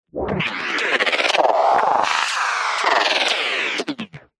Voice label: science fiction robot glitch voice